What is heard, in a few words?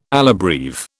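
"alla breve", British English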